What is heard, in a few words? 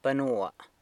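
"banua", San Lucas Quiaviní Zapotec; scorpion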